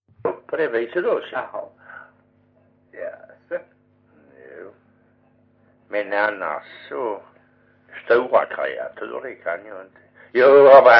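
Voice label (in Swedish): Perstorp Skåne, dialekter, svenska sagor; Folkminnen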